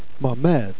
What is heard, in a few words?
"mamethe", Jèrriais